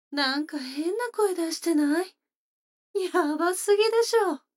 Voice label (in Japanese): 女の子台詞